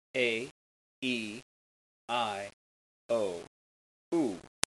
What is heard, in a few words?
am vowels, german